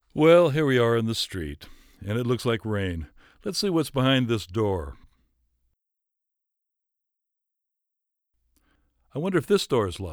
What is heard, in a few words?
Dialog, narrations